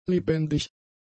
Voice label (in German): "lebendig", German; adjectives